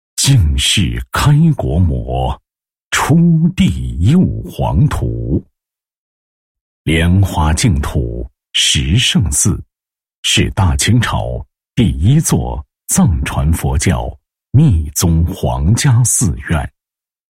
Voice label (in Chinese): 男 宗教 宣传片; 铸佛寻佛宣传片文案; 配音案例